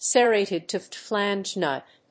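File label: "serrated toothed flange nut", English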